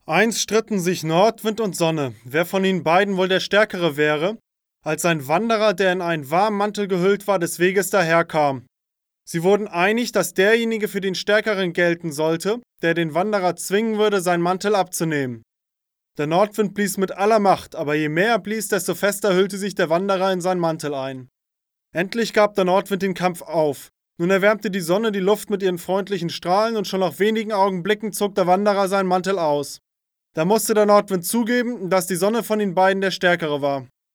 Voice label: male, 50 km/h, lombard